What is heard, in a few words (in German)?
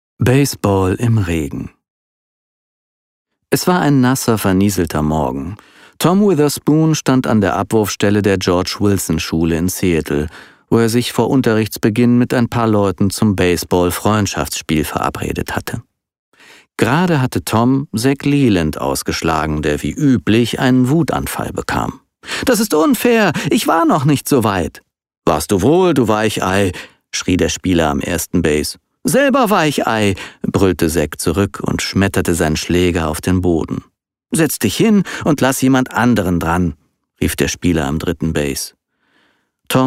Hoerbuch Jugend